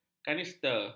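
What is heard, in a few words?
"kanister", Malay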